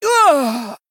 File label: Female Troll Death